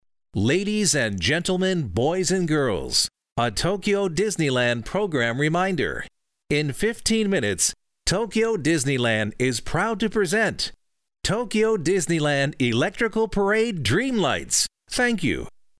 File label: Announcement; Tokyo Disneyland